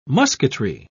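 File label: "musketry", British English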